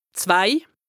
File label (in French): "zwei", lexique indispensable haut rhin, Alsace; 2